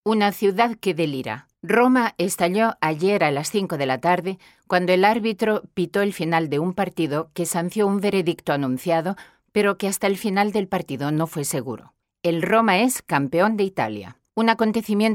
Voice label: spagnolo spa

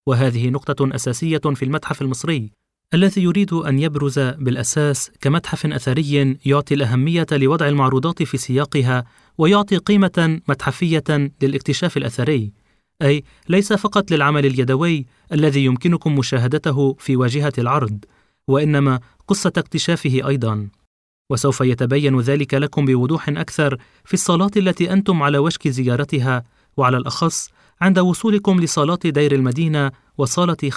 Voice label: arabo

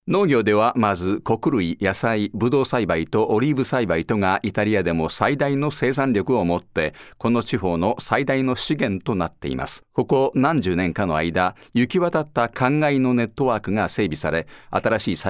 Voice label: giapponese

